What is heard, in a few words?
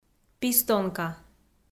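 "пистонка", Komi, female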